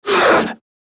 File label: Struggle, Battle Barks; Warframe; Grineer Manic